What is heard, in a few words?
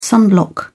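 "sunblock", English, uk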